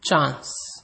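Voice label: "chance", Nigerian Pidgin, male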